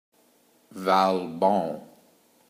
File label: "Valbom", Portugal